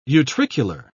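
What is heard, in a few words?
"utricular", British English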